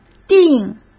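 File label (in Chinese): "订", Mandarin Chinese; hsk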